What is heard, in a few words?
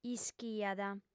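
"ischiat", female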